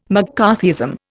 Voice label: "McCarthyism", Indian

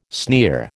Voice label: "sneer", American

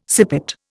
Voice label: "sippet", Indian

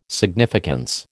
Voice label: "significance", American

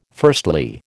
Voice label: "firstly", American

